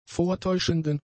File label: "vortäuschenden", German; adjectives